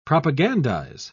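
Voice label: "propagandise", British English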